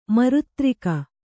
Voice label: "ಮಿರುತಾರಗೆ", Kannada